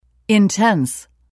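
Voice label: "intense", English word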